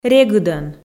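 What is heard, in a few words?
"регыдӧн", Komi, female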